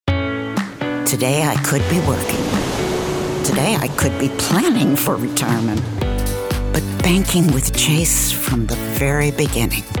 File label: Commercial